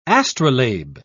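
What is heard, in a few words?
"astrolabe", British English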